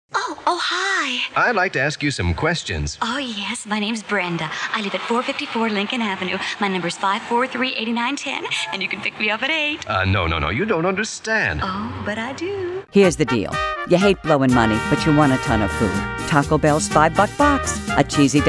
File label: Character Voiceover